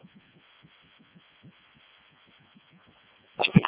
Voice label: decoded speech, parrot